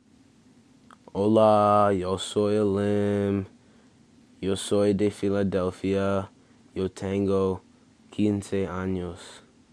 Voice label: Spanish homework

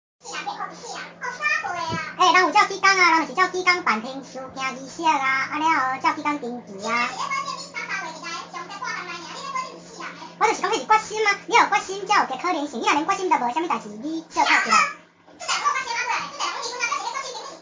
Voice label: conversation in taiwanese sound changed

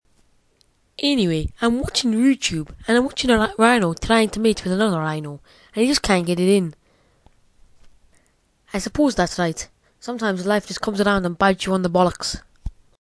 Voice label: funny accent

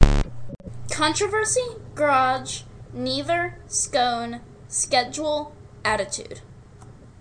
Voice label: Austin Texas female

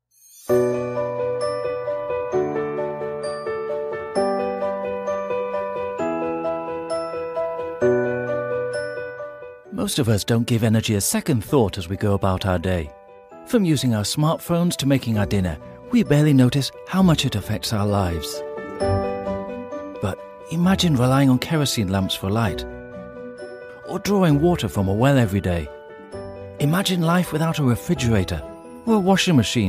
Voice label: british english voiceover; madrid spain

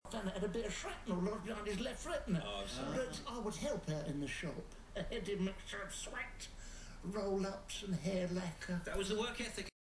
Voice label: cockney

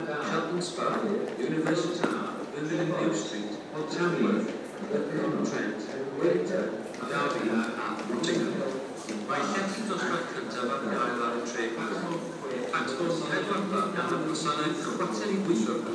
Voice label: overlapping train announcements

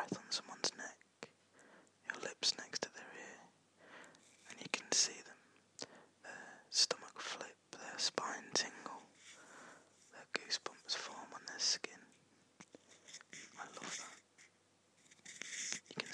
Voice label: whisper

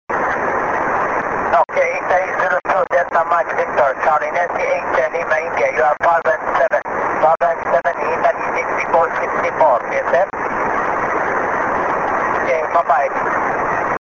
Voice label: 6m ssb

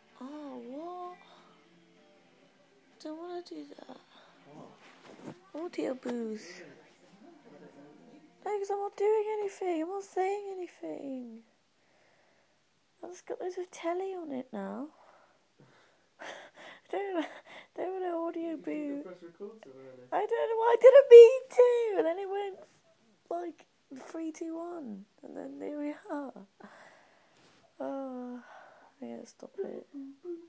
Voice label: rubbish bad voice

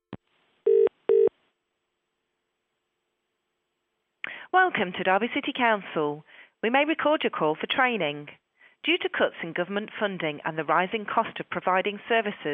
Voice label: switchboard phone message

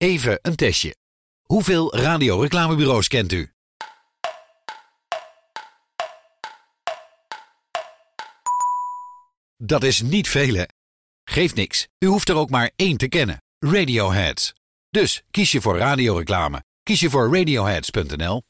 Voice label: radiospot; Radioheads

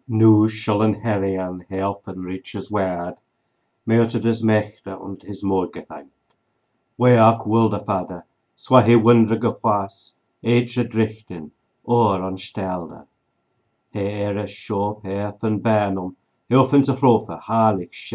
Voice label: caedmon s hymn in anglo saxon

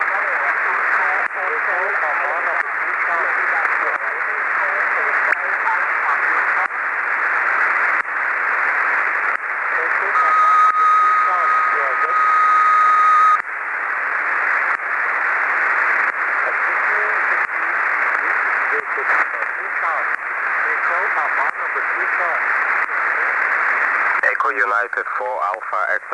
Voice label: 14.200MHz SSB; iran

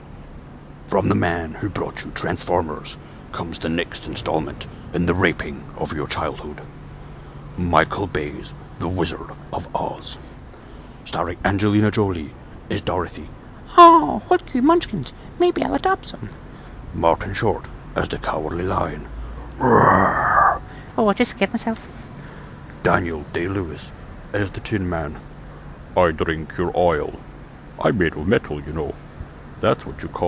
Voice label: voiceover

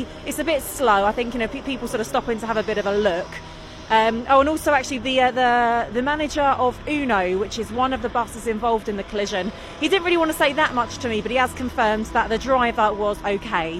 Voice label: reporter updates from barrack road crash

